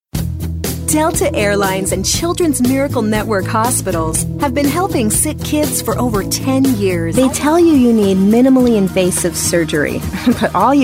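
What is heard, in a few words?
commercial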